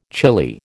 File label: "chilli", American